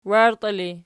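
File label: "wairtii" ergative, Archi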